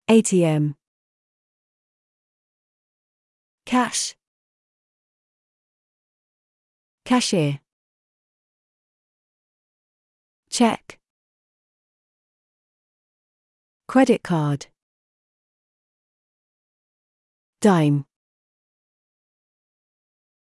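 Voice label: money, British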